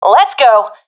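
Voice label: round losing, professional female; Counter Strike Model Pack; CS:GO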